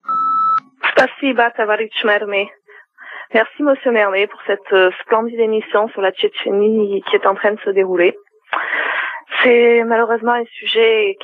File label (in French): Tchetchenie repondeur